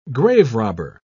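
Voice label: "graverobber", British English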